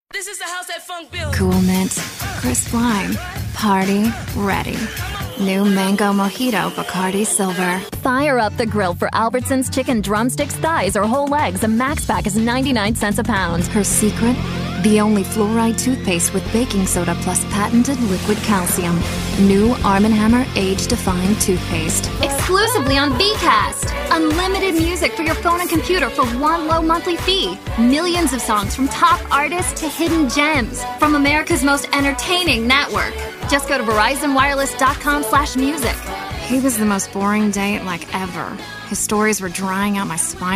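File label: commercial, voiceover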